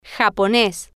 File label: "japones", spanish